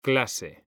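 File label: "clase", Spanish, male; mekanta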